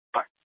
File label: Scottsdale Police, Simulcast